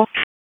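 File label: Raleigh Police Northeast District Tactical; wake; p25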